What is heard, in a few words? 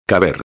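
"caber", Spanish; futuro imperfecto de indicativo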